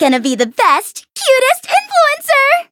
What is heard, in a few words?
eng menu idle; Cookie Run; melon soda